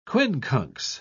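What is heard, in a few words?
"quincunx", British English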